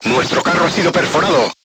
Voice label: voice message player tank hit, crew tanks spanish

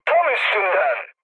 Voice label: bomb success, mood med, speech tur; War Thunder

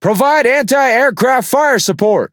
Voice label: Speech Quick Commands Need AA, EN; World Of Warships

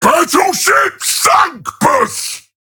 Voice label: Ork Destroy Enemy Battleship; Warhammer Ork; Warhammer 40000

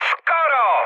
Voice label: bomb failure, speech cze, mood high; War Thunder

